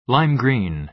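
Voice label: "lime green", American English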